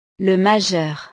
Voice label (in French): "le majeur", frances; Dedo medio; partes del cuerpo